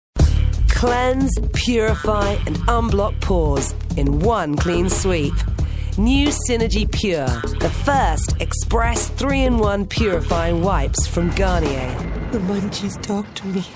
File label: voiceover